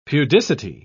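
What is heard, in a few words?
"pudicity", British English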